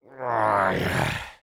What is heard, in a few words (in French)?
negative vocalization; Sniper; fr